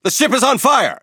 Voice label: Speech Alarms Fire Alarm, EN; World Of Warships